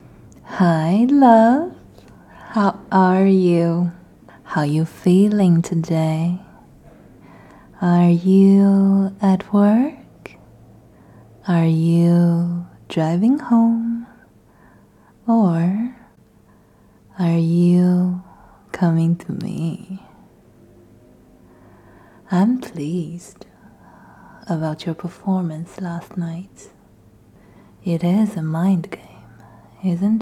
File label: voice message